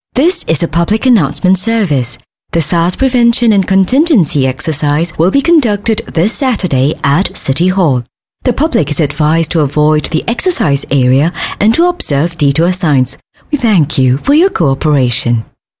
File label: English Singaporean Female